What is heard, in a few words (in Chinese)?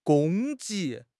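"攻击", Mandarin Chinese; HSK6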